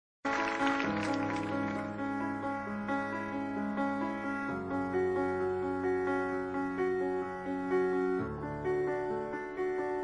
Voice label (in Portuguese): crise, Voz Feminina; Telemensagem Relacionamento Crise